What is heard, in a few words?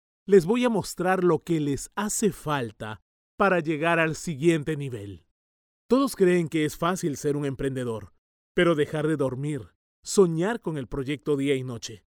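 Spanish Latin America male voice over, narrative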